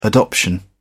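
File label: "adoption", British English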